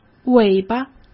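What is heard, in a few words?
"尾巴", Mandarin Chinese; chinese-flashcards; hsk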